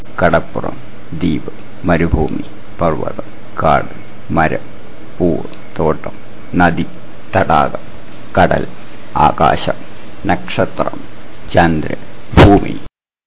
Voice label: malayalam nature

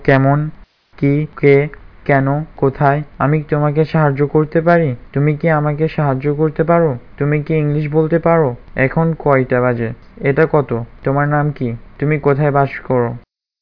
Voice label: bengali phrases

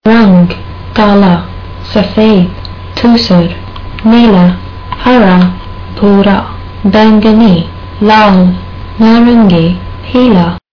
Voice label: hindi; colors

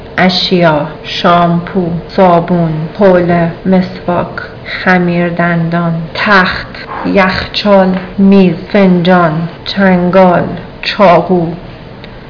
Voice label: farsi

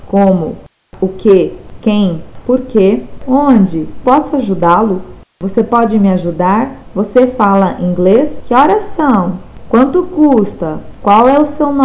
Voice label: portuguese phrases

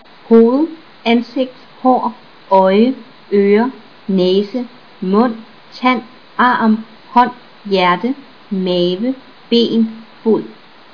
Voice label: danish

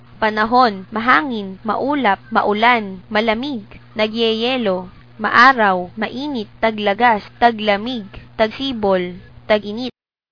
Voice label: filipino; weather

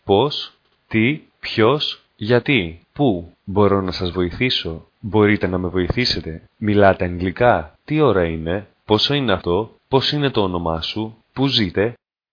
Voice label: greek phrases

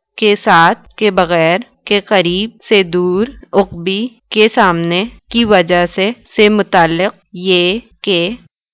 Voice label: Urdu words

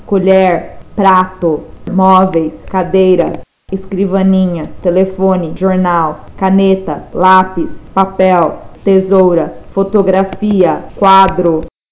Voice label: brazilian

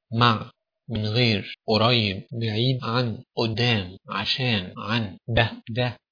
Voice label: egyptian; words

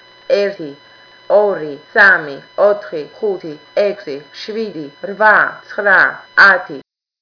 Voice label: georgian; numbers

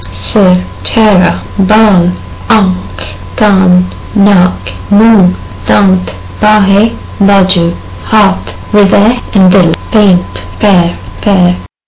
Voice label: hindi

